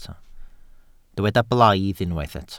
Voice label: "blaidd", Welsh